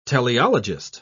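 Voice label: "teleologist", British English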